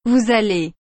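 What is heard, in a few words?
"vous allez", French; aller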